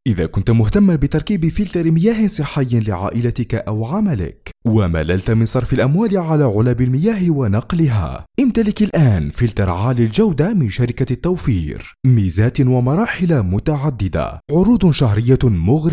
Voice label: Arabic Voiceover